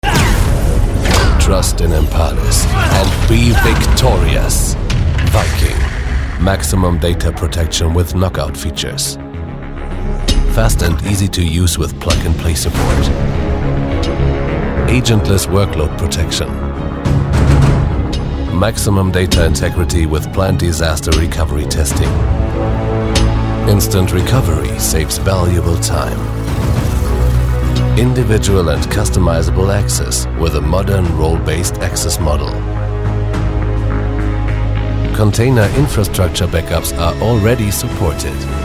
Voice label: english others